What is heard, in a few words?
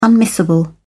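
"unmissable", British English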